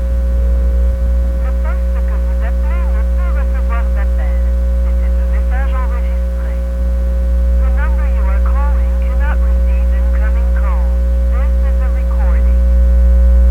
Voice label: bell quebec no incoming call; payphone